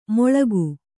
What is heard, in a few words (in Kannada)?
"ಮೊಳ್ಗು", Kannada